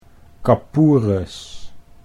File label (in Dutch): "kapoeres", Dutch